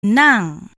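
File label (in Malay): "nang", Malay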